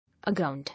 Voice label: "Aground", English